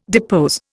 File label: "depose", Indian